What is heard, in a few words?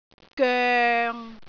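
"goeng6", Cantonese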